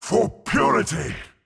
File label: Mid Fight Taunts; Voll; NPC; Voll Combat